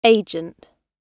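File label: "agent", British English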